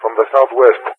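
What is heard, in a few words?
"from the SW", English, GREECE; Allied Flight; DCS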